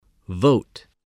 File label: "vote", spelling